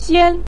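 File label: "xian", Mandarin Chinese syllable, neutral tone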